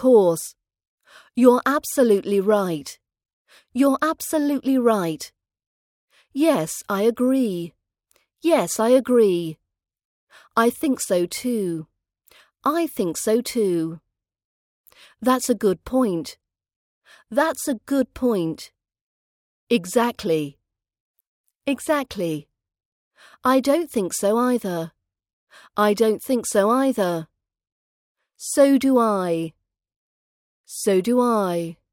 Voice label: expressing opinions agreeing with an opinion british accent training audio